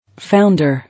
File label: "Founder", English